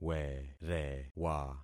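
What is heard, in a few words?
"werewa", concatenated syllables; Affixing experiment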